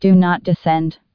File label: "do not descend", female; fgdata